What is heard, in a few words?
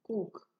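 "cook", Nigerian Pidgin, female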